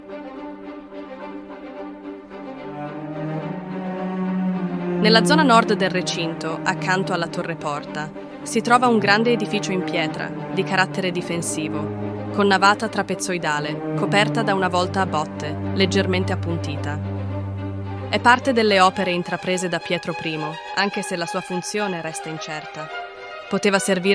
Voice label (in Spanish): audioguia italiano; ruta audioguiada